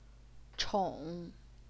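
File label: "chong", third tone, Chinese; 宠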